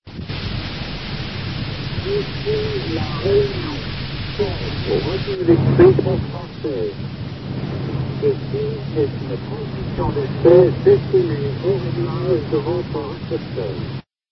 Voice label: usb